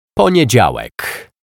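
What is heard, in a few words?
"Poniedzialek", Polish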